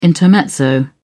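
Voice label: "intermezzo", British English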